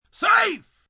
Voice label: Sports Safe, umpire, male, baseball; Sports, umpire, coach; Talking Sound Effects